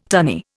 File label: "tunny", Indian